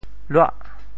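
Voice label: "luah8", Teochew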